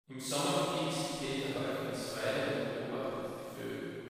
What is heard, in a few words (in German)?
satz reverb